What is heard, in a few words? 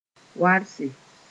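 "warse", Mooré; to water sth. lightly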